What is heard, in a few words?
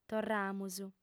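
"torramus", lingua sarda, female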